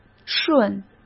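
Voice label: "顺", Mandarin Chinese; hsk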